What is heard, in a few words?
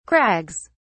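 "crags", British English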